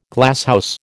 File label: "Glasshouse", American